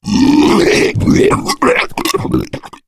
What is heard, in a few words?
male boomer alert; player; voice; source engine; left 4 dead 2